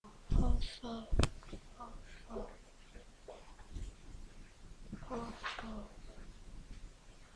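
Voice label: "also", spoken word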